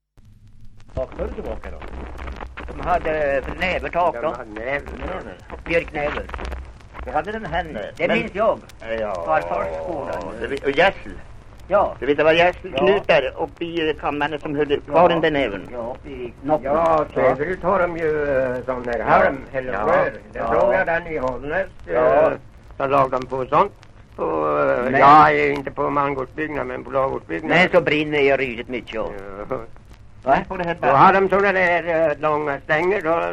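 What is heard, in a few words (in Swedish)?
Hille Gästrikland, dialekter, svenska sagor; Folkminnen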